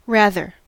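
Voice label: "rather", US English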